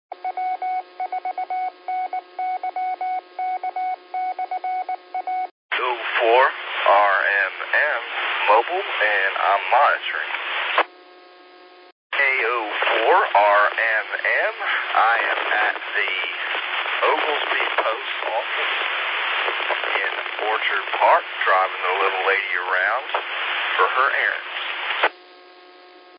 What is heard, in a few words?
qso rec simplex logic